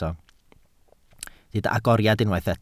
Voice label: "agoriad", Welsh